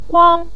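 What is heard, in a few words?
"guang", Mandarin Chinese, neutral tone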